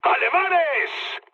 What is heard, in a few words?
enemy detected, mood med, speech; War Thunder